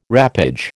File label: "wrappage", American